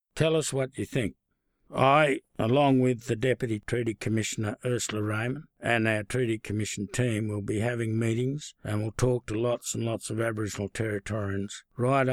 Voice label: English